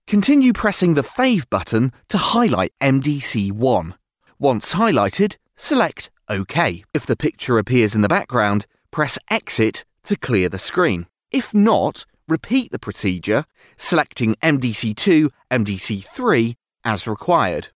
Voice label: locutor ingles english voiceover